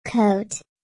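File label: "coat", English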